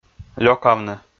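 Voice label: "лёкавны", Komi, male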